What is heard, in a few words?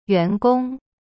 "员工", Chinese; yuan gong; cyberchinese